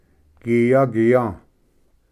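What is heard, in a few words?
"giya giya", Cherokee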